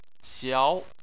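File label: "xiao", Chinese, second tone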